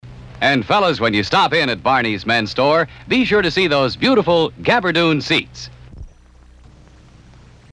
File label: Suit Commercial; AllTimeGreatBloopers